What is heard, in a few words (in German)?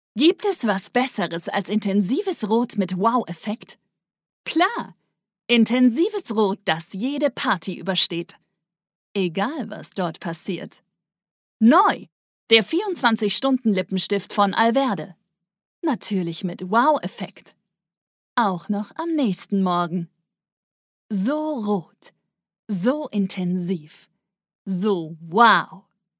Stimmprobe Werbung Lippenstift